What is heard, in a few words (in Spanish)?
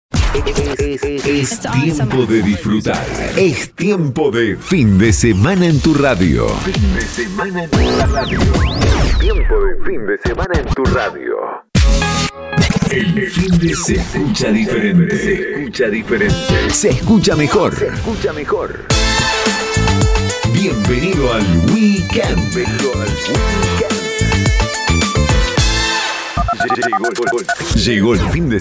Pack finde, Estilo pop elecro, Voz masculina; Ideal para el fin de semana; 15 spots personalizables